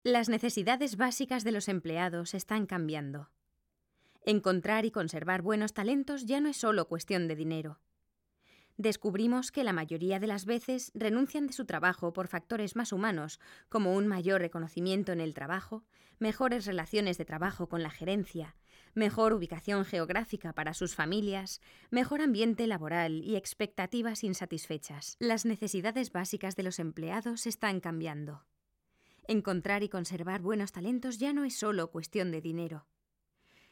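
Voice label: Spanish eLearning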